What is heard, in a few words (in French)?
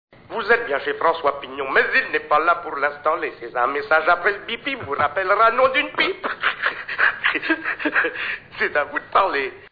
Repondeur